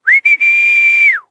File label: Horse Sprint Adult; Duviri